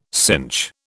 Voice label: "synch", British

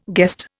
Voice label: "guest", Indian

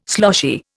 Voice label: "sloshy", Indian

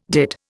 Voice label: "dit", Indian

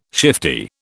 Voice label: "shifty", British

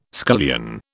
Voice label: "scullion", British

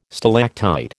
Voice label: "stalactite", American

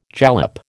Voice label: "jalap", American

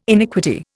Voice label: "iniquity", Indian

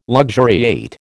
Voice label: "luxuriate", American

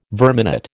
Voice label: "verminate", American

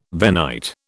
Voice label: "Venite", British

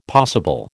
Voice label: "possible", American

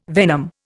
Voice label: "venom", Indian

